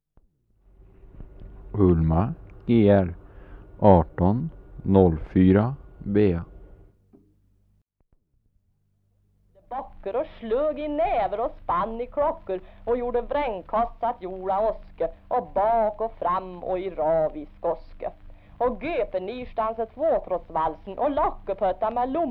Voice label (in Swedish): Järnskog, dialekter; Svenska sagor; Folkminnen